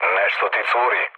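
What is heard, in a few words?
Serbian tank damaged mood low; speech; War Thunder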